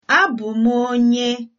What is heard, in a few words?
im from, igbo